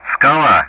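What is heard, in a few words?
Speech Sound Russian; Common Player Callsign Skala; DCS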